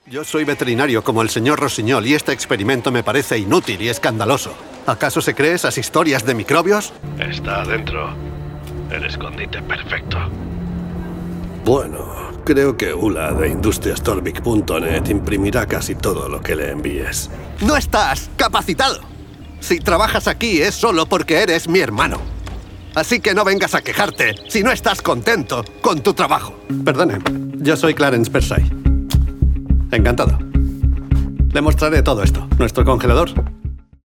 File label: spanish impersonations